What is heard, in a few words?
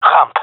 ramp, vo gendarmerie male; player vo